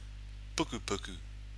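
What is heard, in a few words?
"pukupuku", Māori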